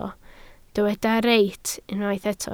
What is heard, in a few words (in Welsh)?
"reit", Welsh